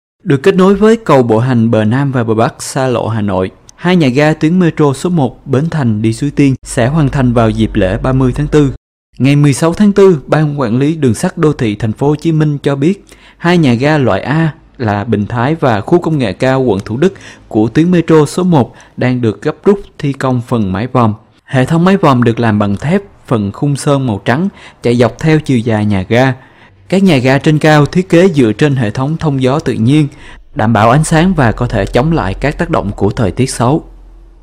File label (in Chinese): 越南语男-干音